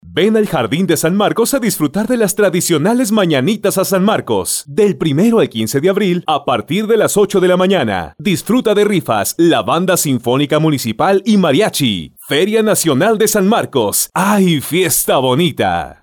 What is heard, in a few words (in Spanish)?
LOC COMERCIAL Y PUBLICITARIA COMERCIAL